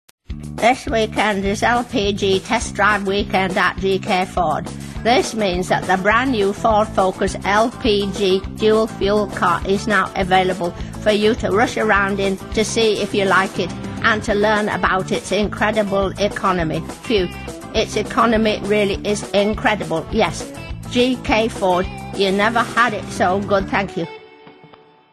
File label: readings